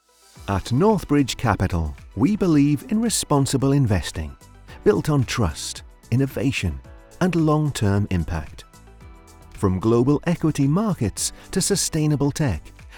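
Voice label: Corporate, British English Voice Over, UK accent; Native British English, experience in different genres of voice-overwork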